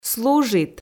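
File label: "служит" Komi, female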